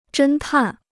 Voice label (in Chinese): "侦探", Chinese